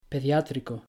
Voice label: "pediátrico", Spanish; natural stress in spanish